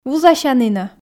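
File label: "вузасянінӧ", Komi, female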